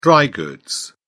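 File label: "dry goods", British English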